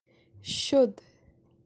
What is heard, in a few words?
"shod raluros", pure kashmiri